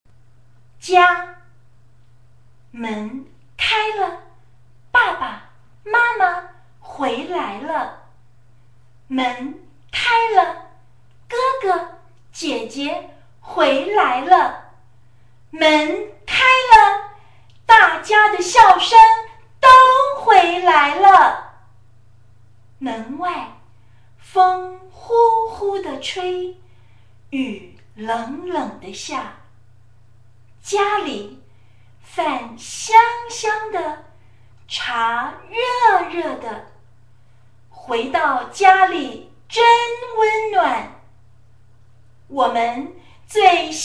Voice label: chinese new year performance poem